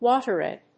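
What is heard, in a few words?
"Water Aid", English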